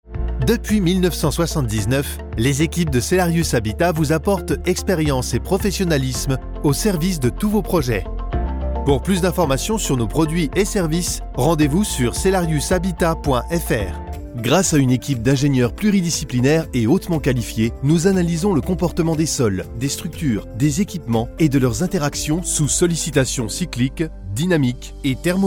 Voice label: french ivr